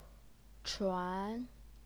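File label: "chuan", second tone, Chinese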